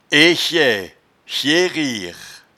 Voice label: "echecherire", patois jura

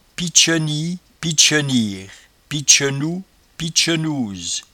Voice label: "pitcheniepitcheniere", patois jura

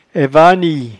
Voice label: "aivanie", patois jura

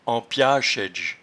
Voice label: "empiachaidge", patois jura

